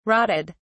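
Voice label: "rotted", British English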